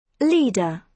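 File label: "leader", British English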